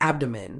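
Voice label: "abdomen", British English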